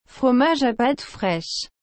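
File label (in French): "fromage à pâte fraîche", French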